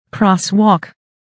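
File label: "crosswalk", English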